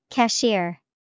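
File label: cashier, English vocabulary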